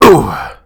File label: PAIN; DN3D; Duke Nukem 3D